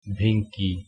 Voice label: fire, kannada